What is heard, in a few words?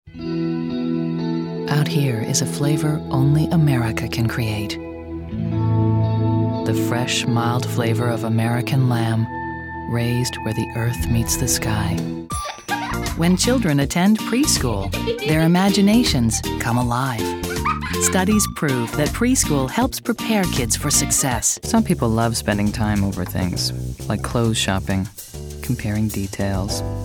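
Commercial, voice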